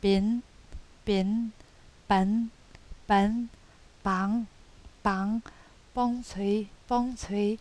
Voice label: vowels nasal